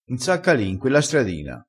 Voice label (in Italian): "nsacca", crespinese